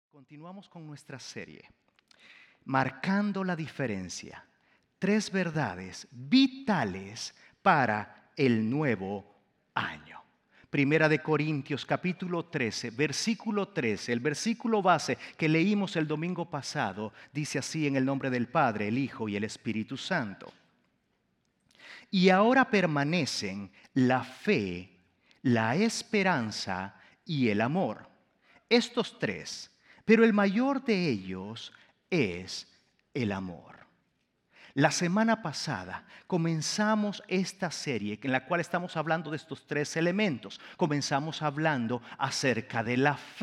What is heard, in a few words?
SERMON SPA